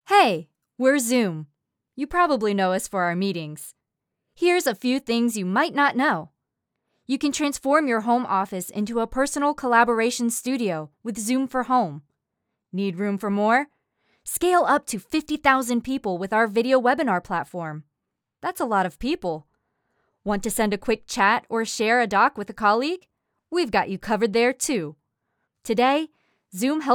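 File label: Narration Engaging Bright, Female; American English voice-over artist; Zoom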